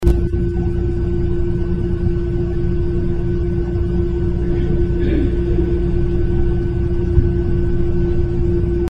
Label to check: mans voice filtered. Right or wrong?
Right